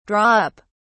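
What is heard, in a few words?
"draw up", British English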